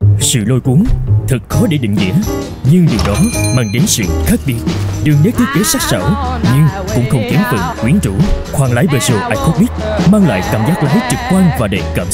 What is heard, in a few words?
South Male, South Vietnamese